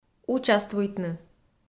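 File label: "участвуйтны", Komi, female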